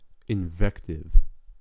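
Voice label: "invective", US English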